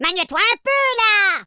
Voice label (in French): hurry, French speech